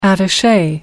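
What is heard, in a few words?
"attaché", English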